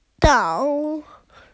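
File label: "gou3", Mandarin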